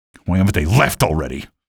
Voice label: cit alert soldier, male; Garry's Mod

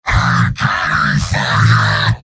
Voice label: heavy taunts; tf2; garrysmod; mvm

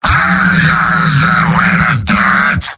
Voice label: battlecry, demoman; tf2; garrysmod; mvm

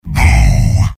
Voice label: soldier jeers; mvm

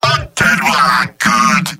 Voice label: jeers; demoman; mvm

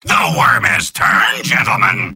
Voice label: soldier cheers; mvm

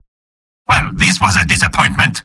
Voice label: spy jeers; mvm

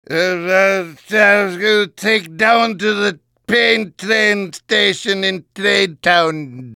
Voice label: gibberish; demoman

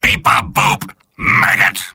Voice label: soldier robot; mvm

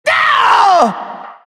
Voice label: pain; halloween; merasmus

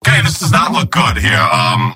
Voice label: negative vocalization, scout; tf2; garrysmod; mvm

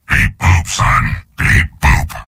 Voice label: soldier robot; mvm

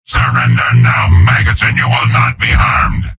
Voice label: soldier taunts; tf2; garrysmod; mvm

